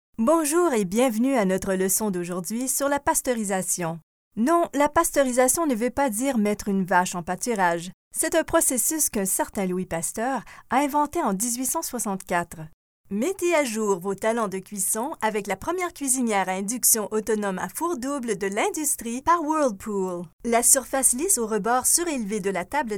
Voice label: FRENCH Female

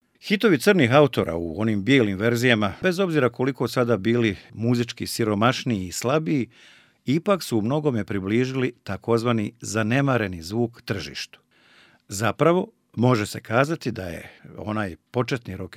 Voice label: CROATIAN Male

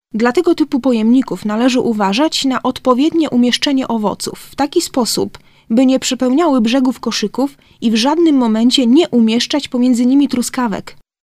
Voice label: Polish Female

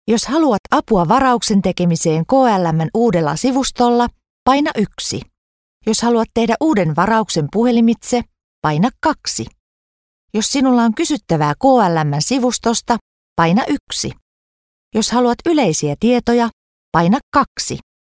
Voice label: Finnish Female

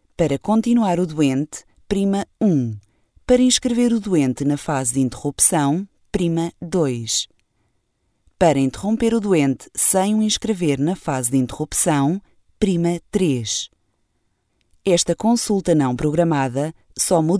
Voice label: Portuguese Female

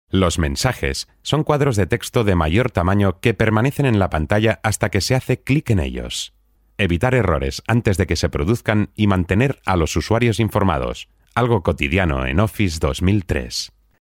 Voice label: Spanish (EU) Male

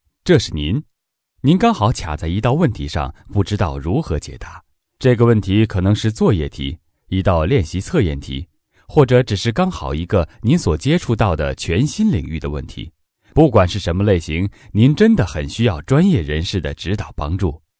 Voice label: CHINESE (Mandarin) Male

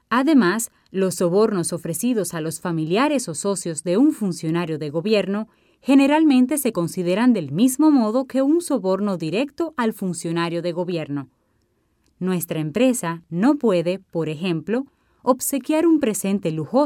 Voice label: Spanish (Latin) Female

